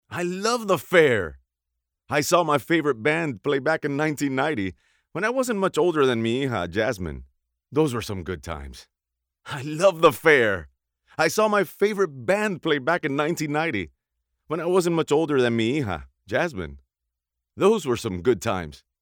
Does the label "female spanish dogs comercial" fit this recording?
No